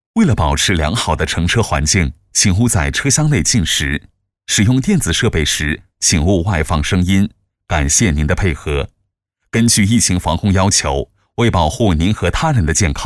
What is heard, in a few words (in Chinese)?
男 语音播报 列车报站